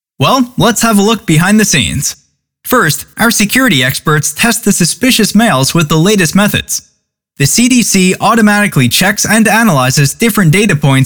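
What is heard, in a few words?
male, US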